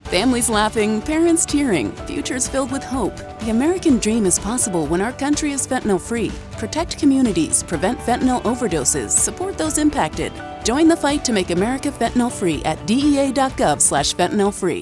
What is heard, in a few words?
Radio Music